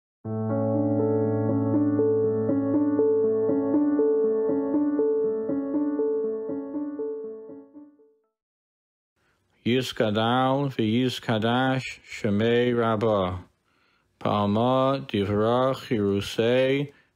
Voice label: Mourners Kaddish Ashkenazic Pronunciation; Sherut